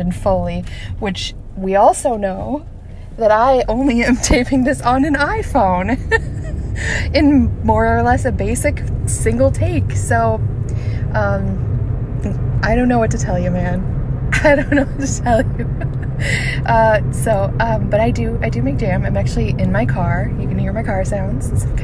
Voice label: Soft Sweet Desire, Soft, Erotic audio; [Original Content]; [GFE]; [Naked in a Towel l]; [Affirmation]; [Whispers]; [Touching Self]; [Wet Noises]; [Gradual climax]